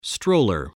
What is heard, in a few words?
"stroller", spelling